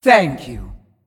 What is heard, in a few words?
female demon thanks